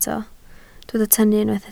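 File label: "tynnu" Welsh, spoken word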